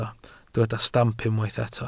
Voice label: "stamp", welsh